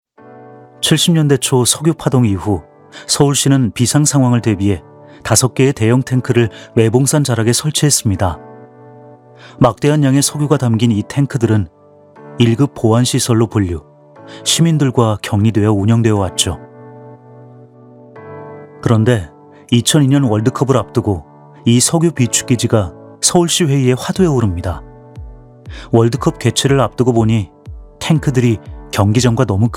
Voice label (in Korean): 일반적인 편안한 설명 내레이션; 문화 비축 기지